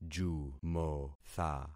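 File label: "jumotha", concatenated syllables; Affixing experiment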